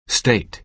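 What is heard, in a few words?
"state", American English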